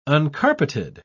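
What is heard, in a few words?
"uncarpeted", British English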